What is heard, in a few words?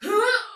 Attack, Soldier Female, Battle; Sinecure